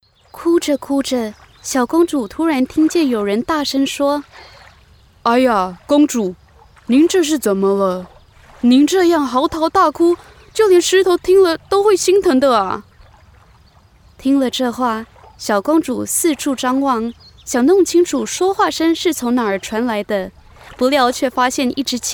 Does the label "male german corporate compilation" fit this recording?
No